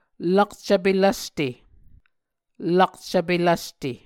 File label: "lakcvpe lvste", Muscogee